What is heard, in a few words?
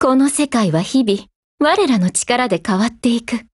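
HELLO, Japanese; GF Alarm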